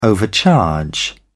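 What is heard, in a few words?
"overcharge", British English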